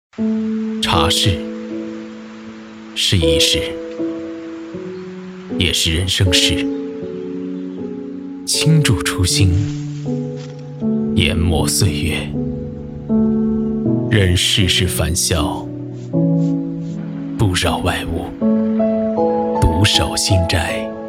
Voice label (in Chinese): 茶广告禅意沉稳